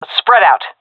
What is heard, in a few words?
request spreadout, seal fem; vo; Counter Strike Model Pack; CS:GO